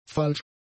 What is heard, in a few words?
"falsch", German; adjectives